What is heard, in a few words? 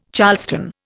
"charleston", Indian